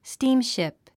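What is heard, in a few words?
"steamship", 英単語 発音; stíːmʃìp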